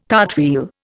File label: "cartwheel", Indian